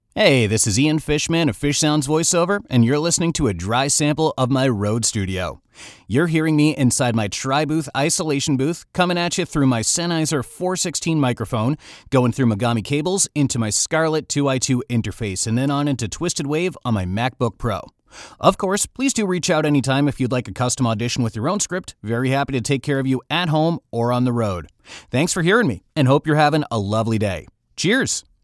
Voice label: Road Studio Sennheiser 416 2i2 Sample